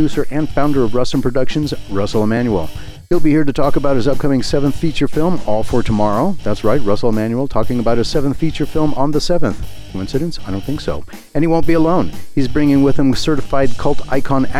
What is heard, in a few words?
radio promo interview